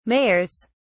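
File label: "mayors", British English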